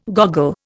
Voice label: "goggle", Indian